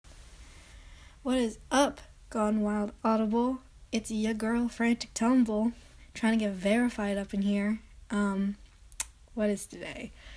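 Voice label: Verification, female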